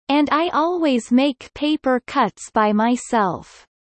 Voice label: Kelly low; spring festival